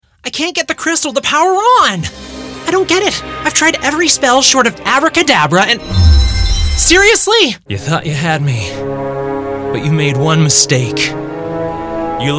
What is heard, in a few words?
english american video games